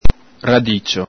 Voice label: "radicio", Venetian